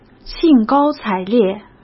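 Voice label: "兴高采烈", Mandarin Chinese; chinese flashcards; hsk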